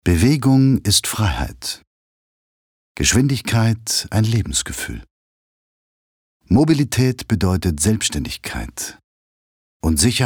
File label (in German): Sanft; Xios